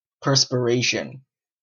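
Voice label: "perspiration", Canadian English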